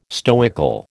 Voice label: "stoical", American

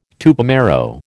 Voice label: "Tupamaro", American

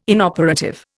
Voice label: "inoperative", Indian

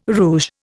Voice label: "rouge", Indian

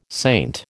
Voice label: "saint", American

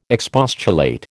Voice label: "expostulate", American

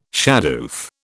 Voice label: "shadoof", British

